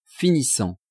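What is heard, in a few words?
"finissant", French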